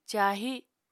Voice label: "cahi", Apatani